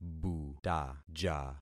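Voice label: "butaja", concatenated syllables; Affixing experiment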